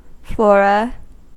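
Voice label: "flora", US English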